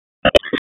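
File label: Police Dispatch, p25; Wake Forest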